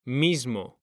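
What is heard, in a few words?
"mismo", Spanish, male; mekanta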